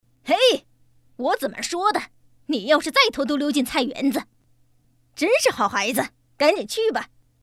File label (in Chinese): 女 刺猬婆婆